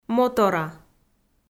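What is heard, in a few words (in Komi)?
"мотора", Komi, female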